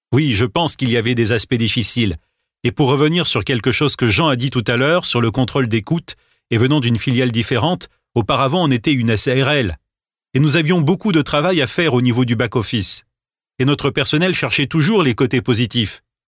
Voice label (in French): voice over voix off